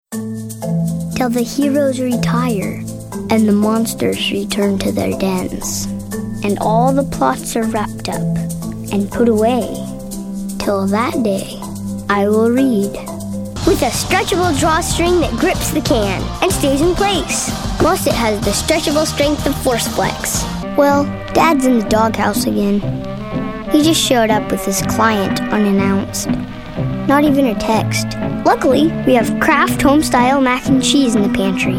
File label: COMMERCIAL